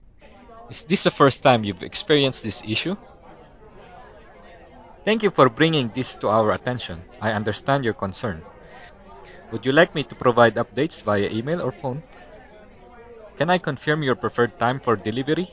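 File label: before, Original speech, Philippine accent; Krisp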